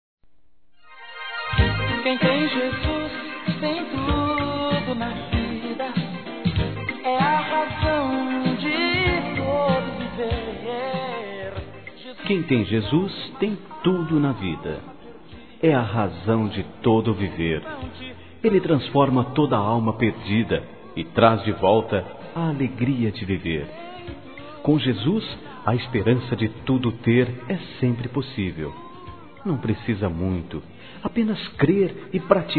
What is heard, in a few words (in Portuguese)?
EVANGELICA M; Telemensagens; Natal e Ano novo